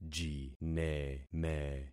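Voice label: "jineme", concatenated syllables; Affixing experiment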